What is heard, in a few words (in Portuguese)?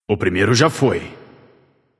alert notify kill streak firstblood, vox caster portuguese